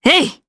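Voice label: Attack, Japanese; heroes; Valance; kingsraid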